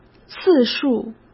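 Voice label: "次数" Mandarin Chinese; chinese flashcards; hsk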